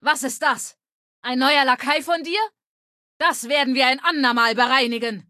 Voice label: female adult battle flee